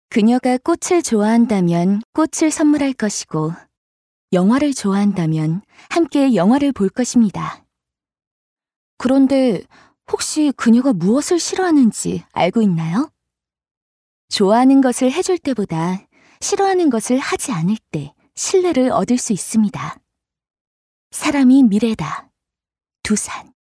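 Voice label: Korean, female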